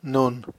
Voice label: "nun", hebrew